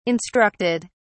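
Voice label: "instructed", British English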